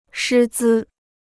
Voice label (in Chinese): "师资", Chinese